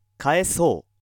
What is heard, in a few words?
male